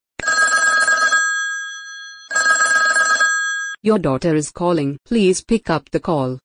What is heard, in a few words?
YOUR DAUGHTER CALLING RINGTONE